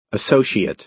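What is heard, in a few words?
"associate", American English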